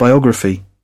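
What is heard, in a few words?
"biography", British English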